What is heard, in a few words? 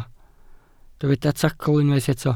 "tacl", Welsh